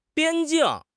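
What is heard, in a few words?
"边境", Chinese; HSK6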